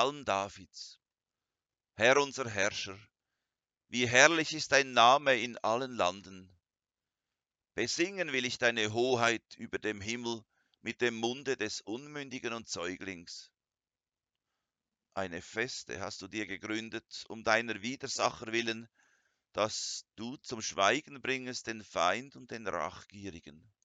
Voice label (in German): Lesung Aufnahme